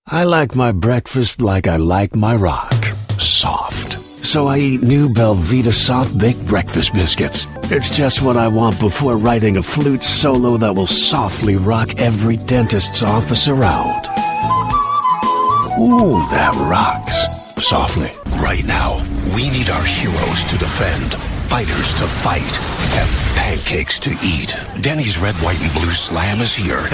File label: Commercial